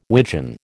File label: "widgeon", American